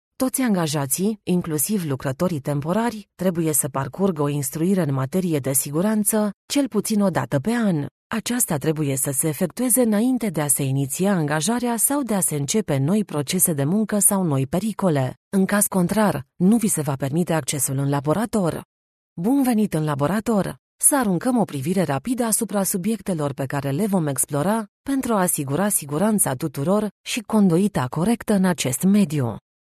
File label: eLearning